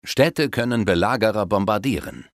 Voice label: City Bombard, Speech German Advisors; Civ5

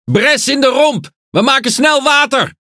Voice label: Speech Alarms Critical Flooding, NL; World Of Warships

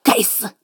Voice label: Speech Quick Commands Caramba; HuaQing

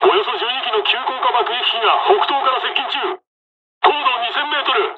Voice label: dialog, dialogs japanese; War Thunder

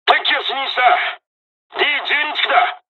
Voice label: dialogs japanese; War Thunder

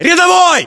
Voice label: rank private, ru; localized english

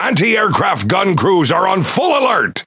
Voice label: Pirate Speech Consumable AAC ready; World Of Warships

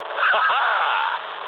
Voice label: trick, speech ru

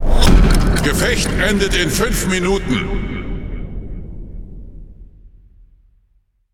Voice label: Speech Timer, DE; World Of Warships

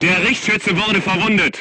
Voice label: voice message gunlayer wounded, crew tanks german

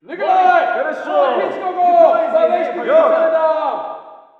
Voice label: walla rus mountain battle

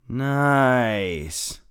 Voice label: mutter; Escape from Tarkov; usec2

